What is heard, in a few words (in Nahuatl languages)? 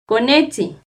"conetzin", Náhuatl; bebé; INALI; UTexas; NAHUATLAHTOLLI